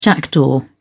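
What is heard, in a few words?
"jackdaw", British English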